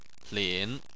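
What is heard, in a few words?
"lin", Chinese, third tone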